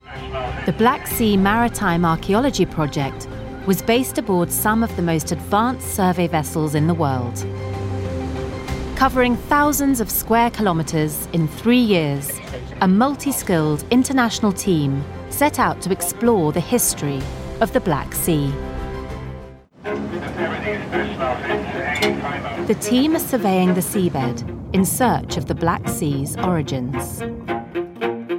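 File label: documentary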